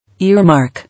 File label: "Earmark", English